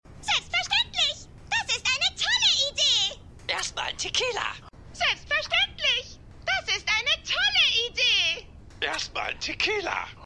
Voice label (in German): Spielzeugstimmen; The Good Doctor